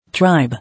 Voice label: "Tribe", English